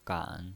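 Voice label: "gan", Mandarin Chinese, third tone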